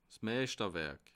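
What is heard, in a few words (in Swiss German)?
"meischterwerik", Bas Rhin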